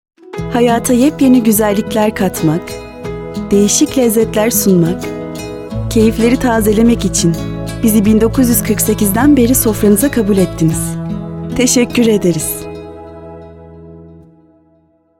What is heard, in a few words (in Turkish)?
reklam; sana